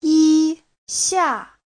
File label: "yi1 xia4", Chinese